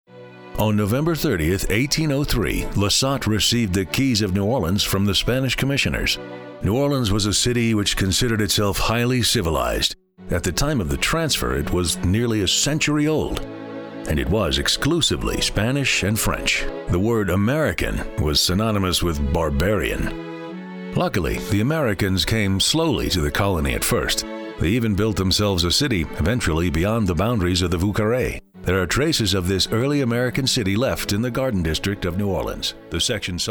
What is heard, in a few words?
narration, male